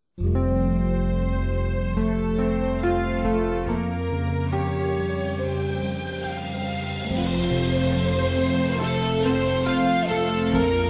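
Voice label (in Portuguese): Esposa Evangelica Masculino; Aniversários; ANIVERSARIO romantico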